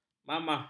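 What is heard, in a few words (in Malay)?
"mamah", Malay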